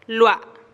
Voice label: "lua" oaxaca, teotitlan

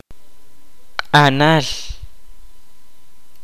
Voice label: "grasa humana", Kaqchikel

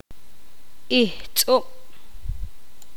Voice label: poqomam flor

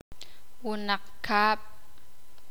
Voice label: "abeja", sakapulteko

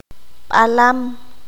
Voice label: "jaguar", qanjobal

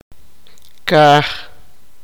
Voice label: "cielo", sipakapense

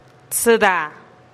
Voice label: "tsïda" catorce, teotitlan

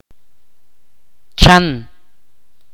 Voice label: "serpiente", Ch'orti'

